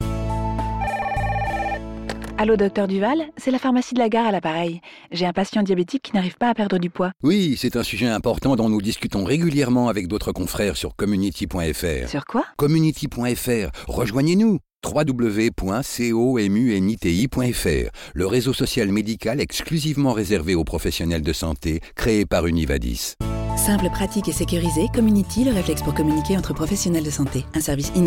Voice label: radio spot; comuniti professionnels de sante